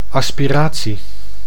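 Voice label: "aspiratie", Dutch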